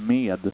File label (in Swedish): "med", Swedish